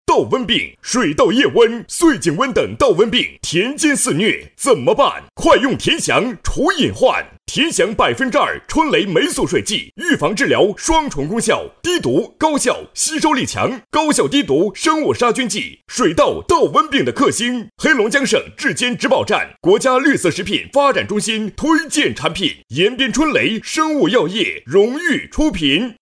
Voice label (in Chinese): 男80 直销, 国语男声配音; 央视农广